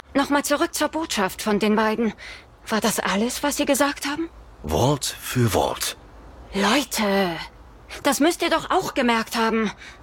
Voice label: Hoerspiel Reporterin; Random AI is watching you; Reporterin M Deauville

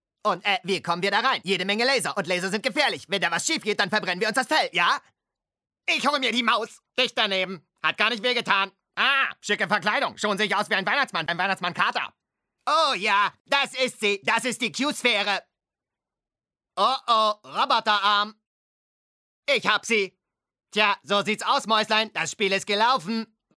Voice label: kinofilm synchron; tom and jerry

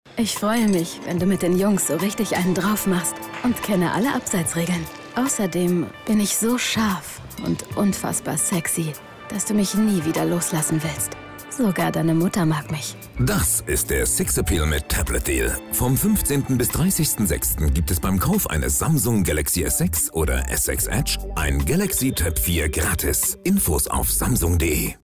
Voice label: Funk Spot; samsung s6; bundle sixappeal men

